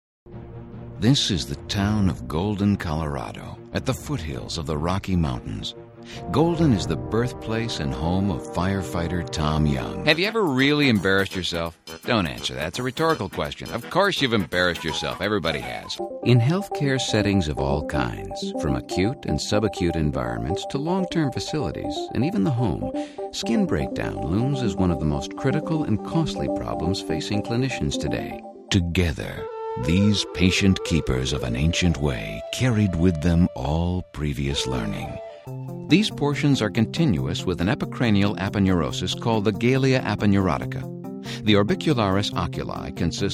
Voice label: Voice Samples Narrations